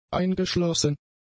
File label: "eingeschlossen", German; adjectives